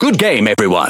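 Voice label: Pirate Speech Good Game, English pirate; World Of Warships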